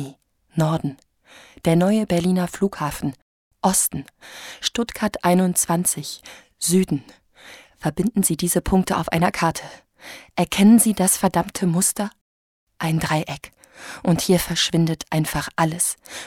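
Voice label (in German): werbung verschwörung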